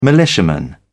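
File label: "militiaman", British English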